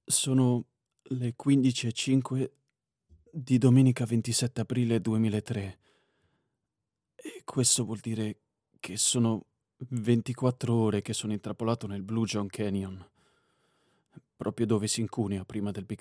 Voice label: Italian; 127 hours; homestudio